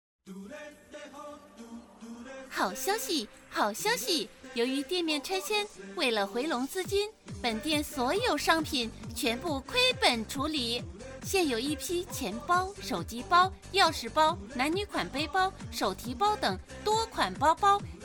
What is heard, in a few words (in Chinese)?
广告配音; 好消息